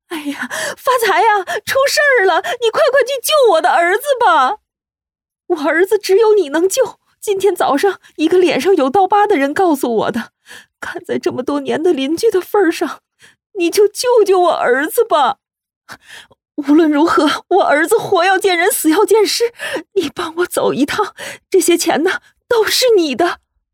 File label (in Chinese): 焦急的大妈